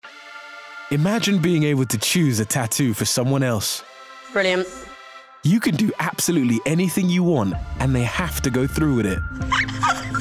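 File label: documentary montage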